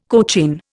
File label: "cochin", Indian